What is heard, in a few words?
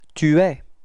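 "etre tu", French; frans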